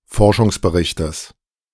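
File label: "Forschungsberichtes", German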